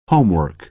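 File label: "homework", American English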